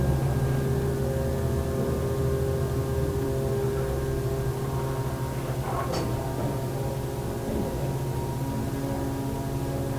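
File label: Attic Unexplained Metallic Voice (Hot) Amplified; Turner Home Bluefield WV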